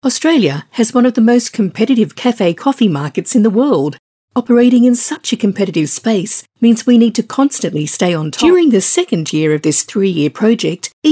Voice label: Corporate